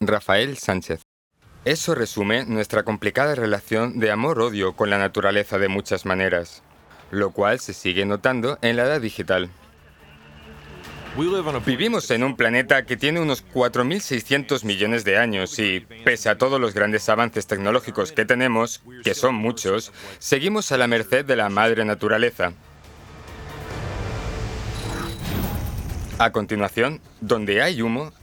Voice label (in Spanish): Documental